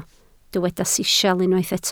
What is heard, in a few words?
"sisial", Welsh